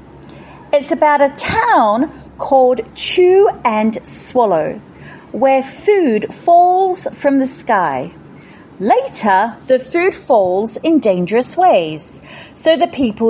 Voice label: English Ambassador Script